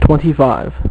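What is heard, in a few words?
"twenty-five", male; MacCribbage; InterStellar MacCribbage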